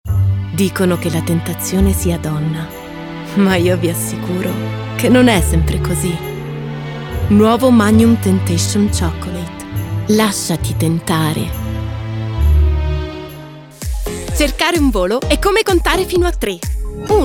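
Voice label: Italian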